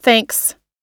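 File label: "thanks", US English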